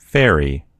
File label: "fairy", en US; gcompris